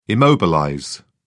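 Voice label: "immobilize", British English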